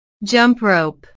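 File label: "jump-rope", English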